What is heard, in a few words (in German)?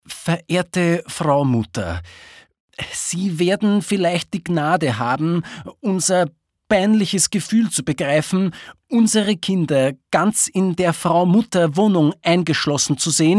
Dialekt Oestereichisch